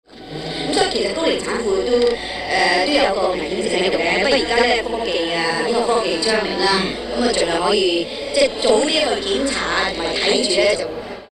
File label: asian comic voice